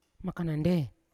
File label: "makanande", Yawa; later; unat